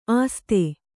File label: "ಆಸ್ಥೆ", Kannada